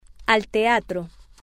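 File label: Spanish, female; at The Theater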